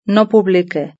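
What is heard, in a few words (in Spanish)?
"no publique", Spanish; verb-conjugator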